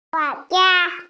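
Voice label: "guo2jia1", Chinese, female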